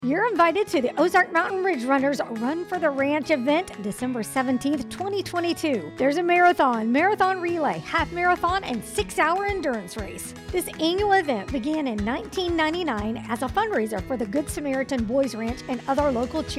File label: promo radio mix; OMRR RFTR 2022